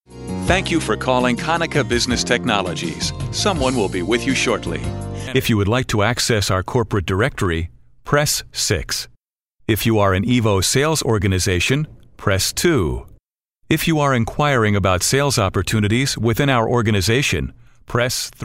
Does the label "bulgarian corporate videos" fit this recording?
No